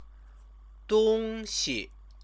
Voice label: "dongxi", Chinese; 东 西; thing; noun